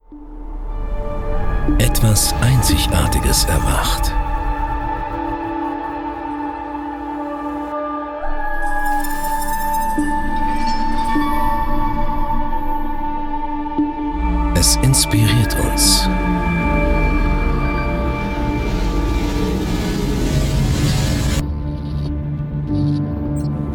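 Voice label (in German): Spots OFF, Sprecher, Männer; BMW M850i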